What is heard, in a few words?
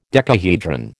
"decahedron", American